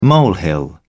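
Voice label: "molehill", British English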